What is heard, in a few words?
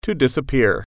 "disappear", English